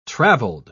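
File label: "traveled", British English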